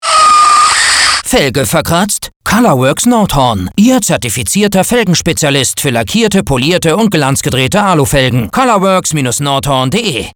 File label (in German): Radio; Felgen